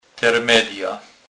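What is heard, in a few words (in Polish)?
"termedja", kurpie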